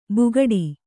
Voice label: "ಬುಗಡಿ", kannada

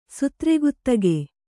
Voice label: "ಸುತ್ರಗುತ್ತಿಗೆ", Kannada

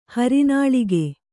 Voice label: "ಹರನಾಳಿಗೆ", kannada

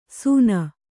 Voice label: "ಸೂನ", Kannada

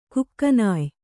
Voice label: "ಕುಕ್ಕನಾಯ್", Kannada; kukkanāy; noun; = ಕುಕ್ಕ2

